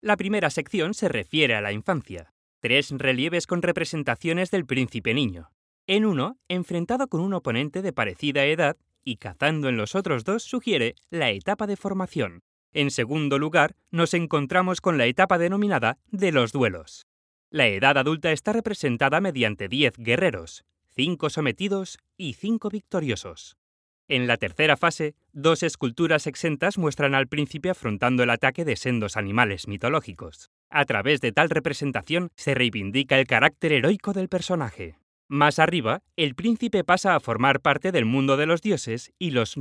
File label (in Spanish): Audioguia Cuadro Museo Entretenido, Audio Guide - Museum Painting